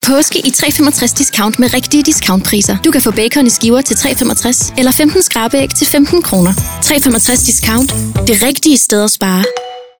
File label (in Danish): Radio Brand